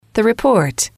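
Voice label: "report", English, female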